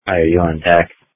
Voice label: Police Tactical Encrypted